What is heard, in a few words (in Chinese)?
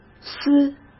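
"撕", Mandarin Chinese; chinese-flashcards; hsk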